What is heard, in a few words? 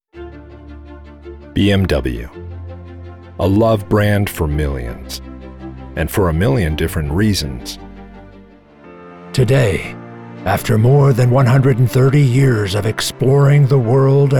Voice Actor Voiceover Voice Over Commercial Narrative Narrator Middle-Aged Male Reader; Host